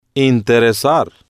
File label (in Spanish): "interesar", Spanish